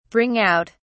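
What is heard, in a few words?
"bring out", British English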